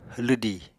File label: "lwdì", Apatani